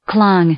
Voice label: "clung", verb, English